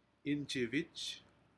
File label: "injivij", Aneityum; fish